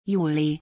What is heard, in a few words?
July in German